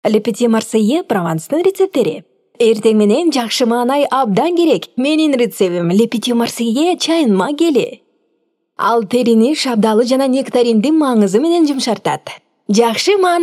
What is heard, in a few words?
Kyrgyz female